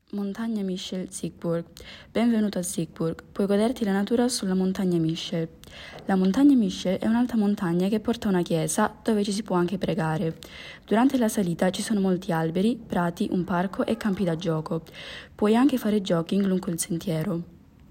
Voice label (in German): italienisch; Michaelsberg